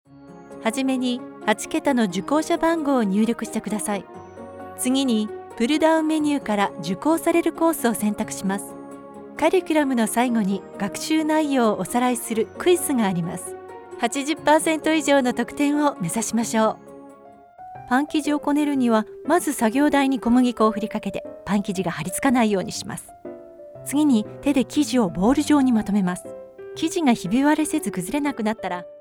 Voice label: Elearning Japanese